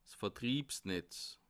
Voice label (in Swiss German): "verstriebsnetz", Bas Rhin; le circuit du livre